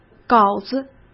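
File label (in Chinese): "稿子", Mandarin; chinese-flashcards; hsk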